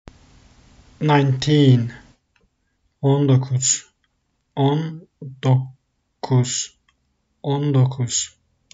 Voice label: nineteen 19, turkish; the talking turkish phrasebook

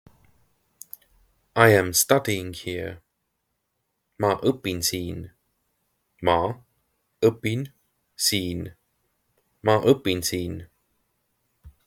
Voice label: I am studying here, Estonian; the talking estonian phrasebook

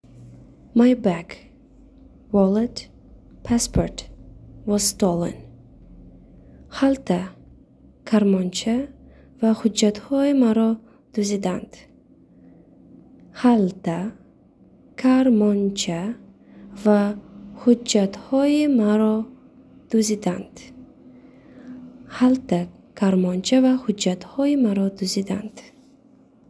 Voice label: My bag wallet passport Was Stolen Tajik

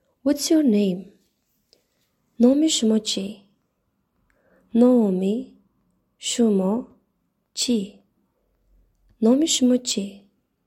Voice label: Whats your name Tajik

